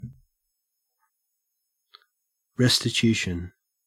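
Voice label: "restitution", Australian English; pronunciations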